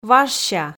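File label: "важся", Komi, female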